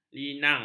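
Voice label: "linang", Malay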